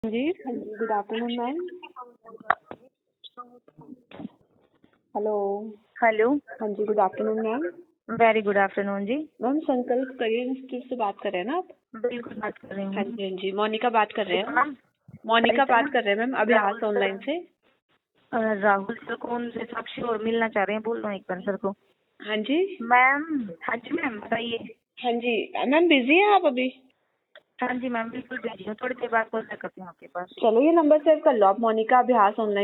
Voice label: call recording